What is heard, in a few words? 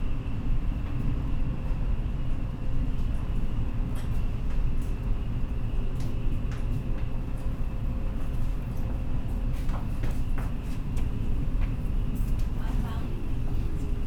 Newspaper Spanish